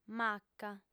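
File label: "maca", female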